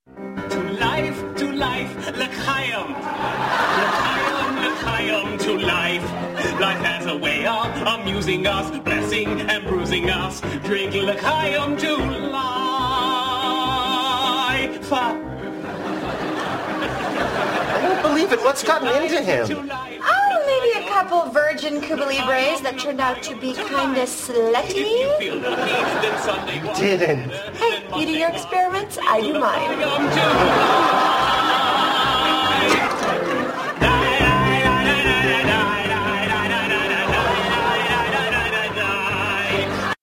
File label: Drunk Sheldon; TBBT; Big Bang Theory